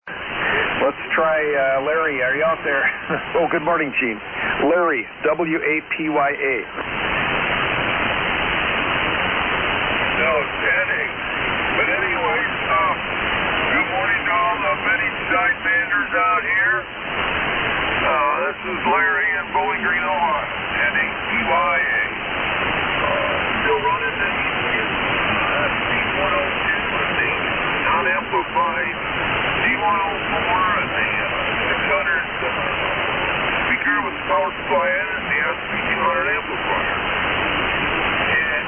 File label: Heathkit SB-102 D-104 Mic & SB-200 Amp; Bowling Green, OH.